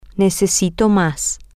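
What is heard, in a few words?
I need more, Spanish, female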